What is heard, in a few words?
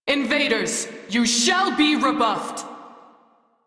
Crew Enemy Spot, Female; Dialog; Warframe; Railjack Crew; New Loka